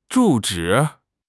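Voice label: "zhu zhi" Chinese; 汉语口语新时代-初级下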